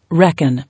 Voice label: "Reckon", English

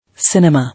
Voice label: "Cinema", English

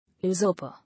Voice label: "Usurper", English

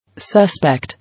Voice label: "Suspect", English

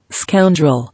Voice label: "Scoundrel", English

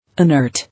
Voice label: "Inert", English

